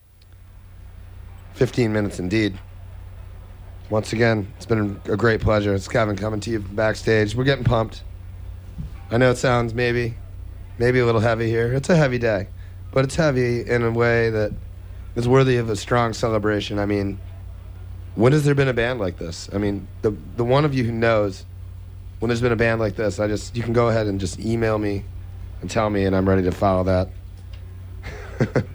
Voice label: radio banter; Coventry

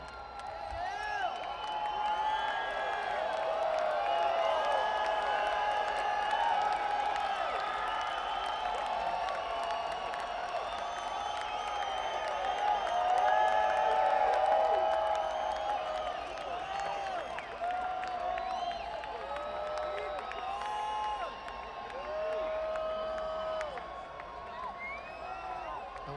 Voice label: Banter; Indio, CA; Empire Polo Club

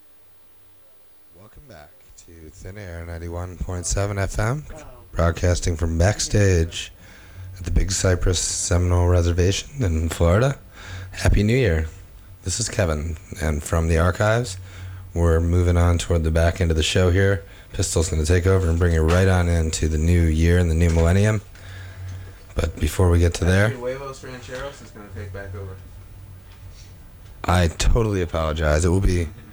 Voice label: radio banter; Big Cypress

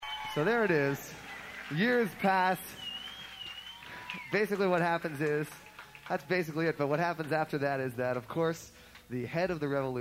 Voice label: Narration; Olympia, WA; North Shore Surf Club

